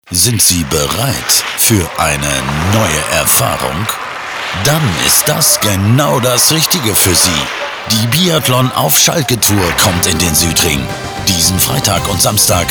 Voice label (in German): Spot Lokalradio; Paderborn